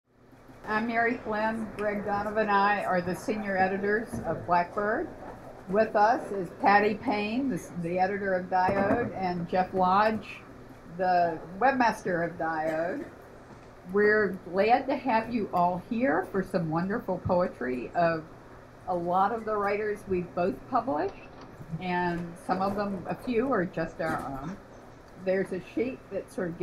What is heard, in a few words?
reading